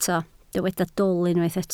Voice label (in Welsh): "dwl", Welsh